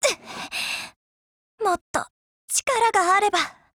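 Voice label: RETREAT Japanese; FAMAS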